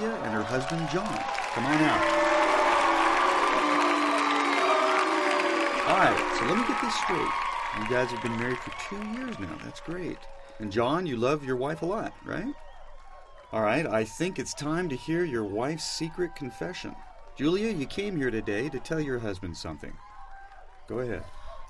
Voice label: Television; Rowdy Talk Show With Announcer And Guests, Music And Crowd Noise. From Close.